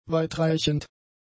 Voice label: "weitreichend", German; adjectives